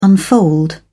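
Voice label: "unfold", English, uk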